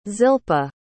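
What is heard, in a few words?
"zilpah", English word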